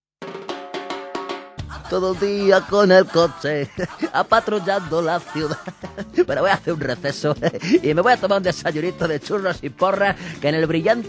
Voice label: spanish impersonations